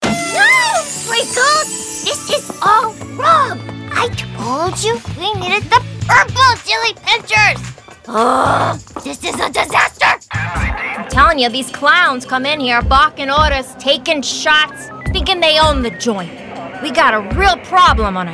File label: Animation Magic